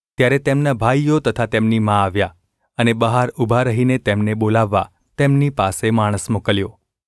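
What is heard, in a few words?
gu ulb mrk, Gujarati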